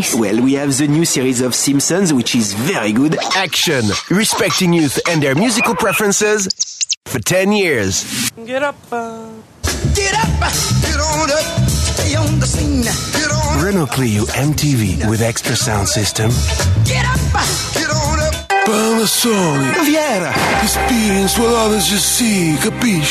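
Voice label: French, male, English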